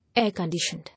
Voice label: "Air-conditioned", English